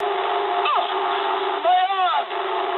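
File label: English damaged pilot mood low; War Thunder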